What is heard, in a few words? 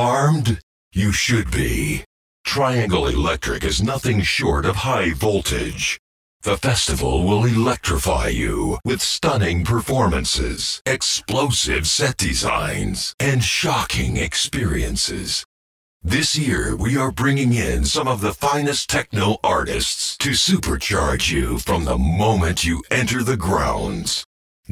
Event Promo, PROMO VOICE OVER